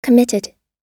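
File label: "committed", US English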